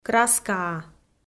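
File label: "краскаа", Komi, female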